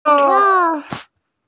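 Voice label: "yi1sheng1" Mandarin Chinese, female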